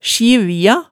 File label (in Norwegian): "sjivja", hemsedalsmaalet